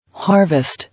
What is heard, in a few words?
"Harvest", English